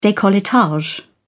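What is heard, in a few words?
"decolletage", British English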